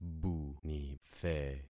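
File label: "bunithe", concatenated syllables; Affixing experiment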